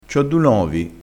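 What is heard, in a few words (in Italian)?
"novi", dialettale crespinese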